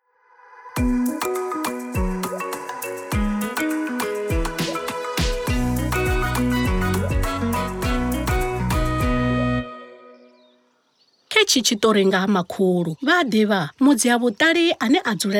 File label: TshiVenda; Sanitation; Disposal; DWS